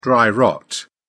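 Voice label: "dry rot", British English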